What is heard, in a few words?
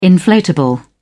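"inflatable", British English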